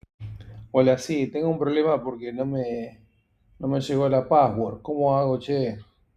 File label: voice message